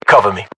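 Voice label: British order action cover me, battle chatter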